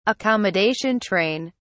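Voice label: "accommodation train", British English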